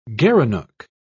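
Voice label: "gerenuk", British English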